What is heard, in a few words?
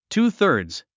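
"two-thirds", English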